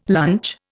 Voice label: "lunch", Indian

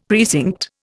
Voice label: "precinct", Indian; Dictionary

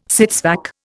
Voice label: "sits vac", Indian

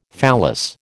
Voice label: "phallus", American

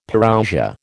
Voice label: "Parousia", American

